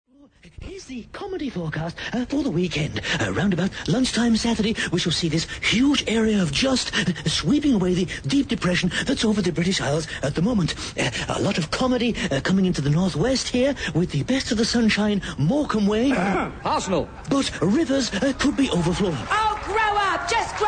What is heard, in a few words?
weather trailer